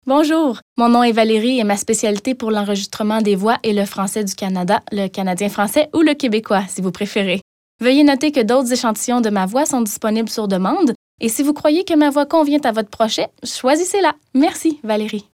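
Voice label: French Canadian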